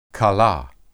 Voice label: "kalah", Hebrew